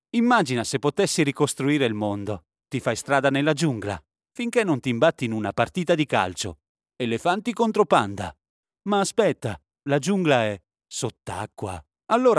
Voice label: ITA COMMERCIAL; LEGO